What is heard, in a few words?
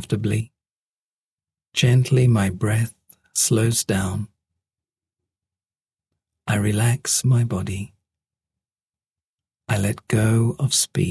Relaxing no music